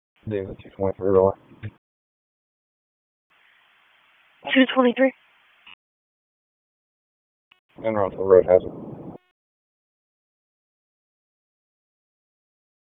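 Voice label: Police Dispatch, p25; Raleigh; Northeast District; wake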